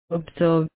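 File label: "absorb", Australian female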